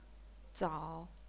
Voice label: "zao3", chinese pinyin